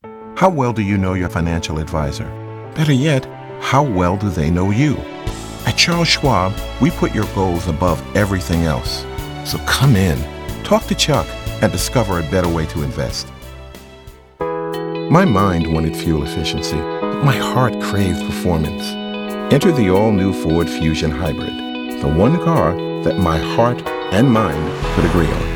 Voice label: Comercial